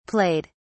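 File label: "played", British English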